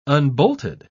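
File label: "unbolted", British English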